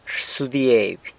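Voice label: "rsudieby", San Lucas Quiaviní Zapotec; boils (something)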